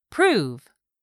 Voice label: "prove", English; 3000 words

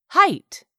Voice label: "height", English; 3000 words

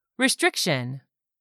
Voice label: "RESTRICTION", english; 3000 words

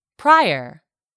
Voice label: "prior", English; 3000 words

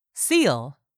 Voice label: "seal", English; 3000 words

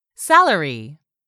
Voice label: "SALARY", english; 3000 words

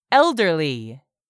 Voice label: "elderly", English; 3000 words

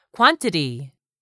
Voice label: "quantity", English; 3000 words

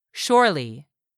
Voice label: "surely", English; 3000 words

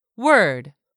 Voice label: "word", English; 3000 words

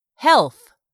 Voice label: "HEALTH", english; 3000 words

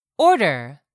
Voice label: "ORDER", English; 3000 words

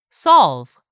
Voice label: "SOLVE", english; 3000 words

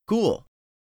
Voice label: "cool", English; 3000 words

